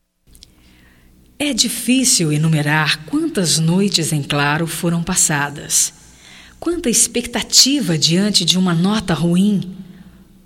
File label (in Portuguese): FORMATURA, fem